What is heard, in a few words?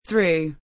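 "Threw", English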